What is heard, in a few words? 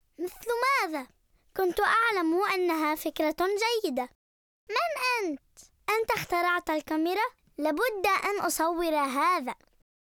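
arabic female kid character, voice demos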